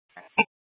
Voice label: Public Transit System Light Rail Maintenance, scanner; Valley Metro; Tempe; Simulcast F- Tempe